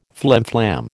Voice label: "flimflam", American